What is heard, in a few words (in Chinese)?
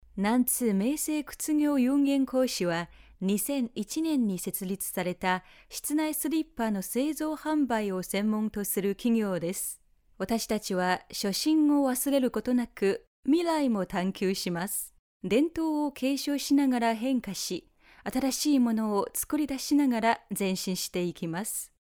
专题宣传片